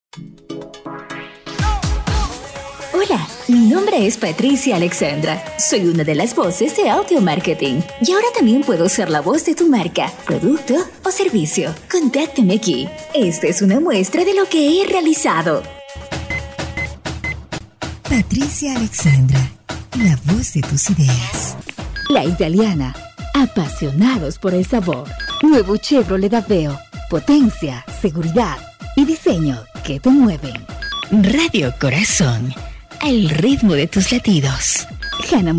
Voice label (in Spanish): Locutora